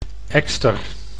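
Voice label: "ekster", Dutch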